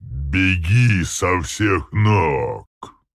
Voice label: Male Tauren Attack; Hearthstone; датамайн обновления 5.0